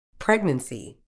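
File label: "pregnancy", medical English, British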